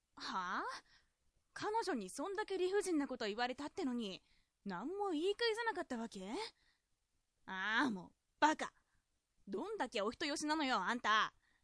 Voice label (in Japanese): josei